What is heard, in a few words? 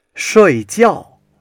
"shui4 jiao4", Chinese